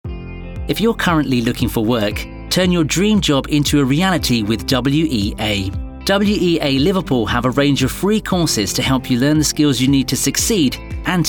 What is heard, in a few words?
Voiceovers UK Website showreel